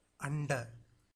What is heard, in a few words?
"anda", Tamil